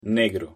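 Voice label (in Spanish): "negro", Spanish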